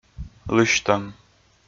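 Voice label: "лысьтӧм", Komi, male; kpv audio dict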